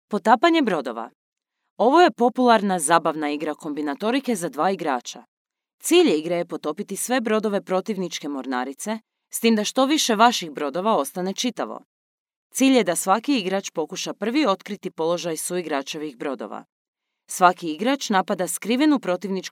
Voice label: game, croatian